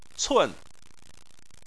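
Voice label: "cun" fourth tone, Chinese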